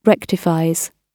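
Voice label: "rectifies", British English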